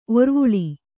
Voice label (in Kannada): "ಒರ್ವುಳಿ", Kannada